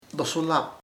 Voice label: poor, chamacoco; pobre